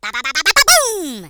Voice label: Firepunch, Hill Billy; hedgewars

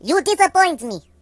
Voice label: "Coward", voices Russian

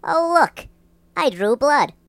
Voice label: First blood, voices Mobster

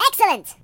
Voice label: "Excellent", voices; hedgewars

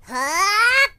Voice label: Firepunch, uk; hedgewars; HedgewarsMobile; Default uk

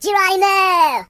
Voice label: Bungee, Surfer

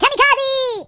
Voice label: "Kamikaze", voices; hedgewars

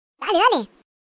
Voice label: Hurry, voices es; hedgewars; Default es

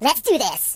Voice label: "Yessir", Surfer, voices; hedgewars

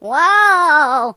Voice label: "Whoopsee", British; hedgewars

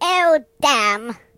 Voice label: "Bugger", British; hedgewars

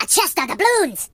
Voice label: Reinforcements, voices Pirate

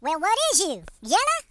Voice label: HillBilly, Coward; hedgewars

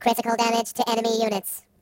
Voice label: "Brilliant", Robot; hedgewars

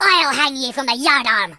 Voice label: Just you wait, voices, Pirate

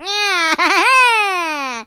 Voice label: "Bungee", British; hedgewars

